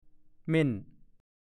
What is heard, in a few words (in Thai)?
"เมน", ภาษาโคราช